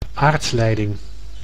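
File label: "aardleiding", Dutch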